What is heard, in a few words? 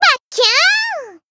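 Voice finish Japanese; thillelille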